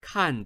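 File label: "kan4", Chinese, male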